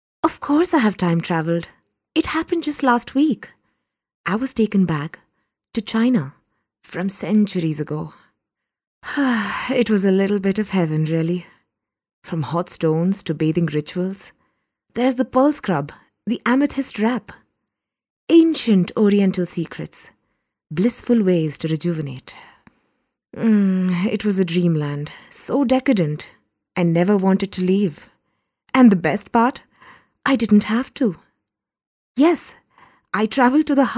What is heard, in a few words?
Indian English Spa Radio Spot, Female